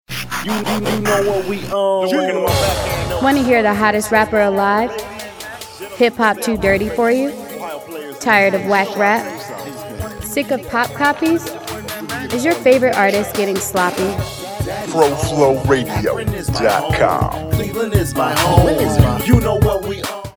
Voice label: Promo VO; Hottest Rapper